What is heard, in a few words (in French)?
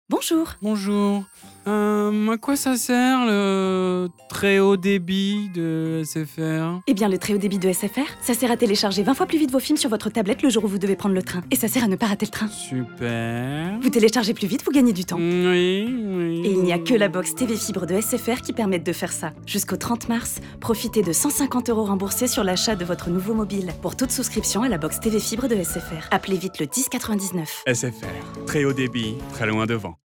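VOIX OFF - Pub, comédienne; MACSF - La journée des infirmières (2020)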